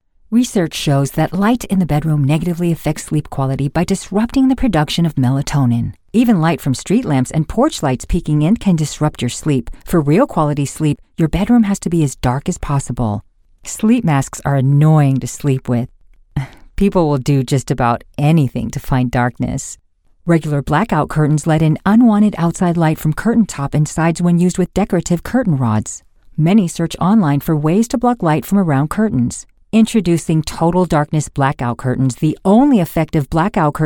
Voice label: female US; lektoring